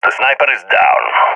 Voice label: sees sniper killed, balkan; vo; balkan epic